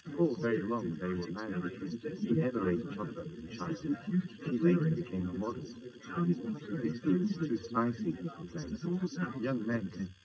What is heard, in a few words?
male srec 130ms 50cm sim; SiSEC11; underdetermined